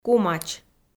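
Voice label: "кумач", Komi, female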